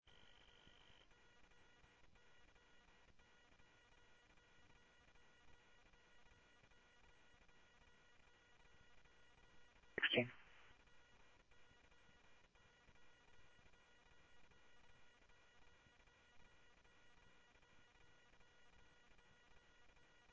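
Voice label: Phoenix police department, scanner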